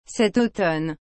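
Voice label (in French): "cet automne", French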